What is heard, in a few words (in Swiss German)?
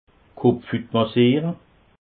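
"kopfhut", Alsatian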